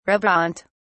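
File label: "reboant", British English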